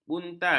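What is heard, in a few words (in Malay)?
"buntal", Malay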